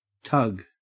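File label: "tug", Australian English; pronunciations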